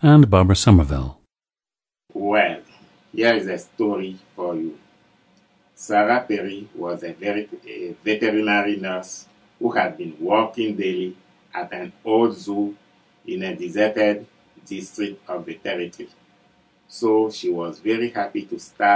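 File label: benin, International Dialects of English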